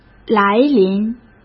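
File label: "来临", Mandarin Chinese; hsk; chinese flashcards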